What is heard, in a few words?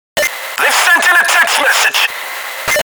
They've Sent in a Text Message (text message tone); Payday The Heist; Bain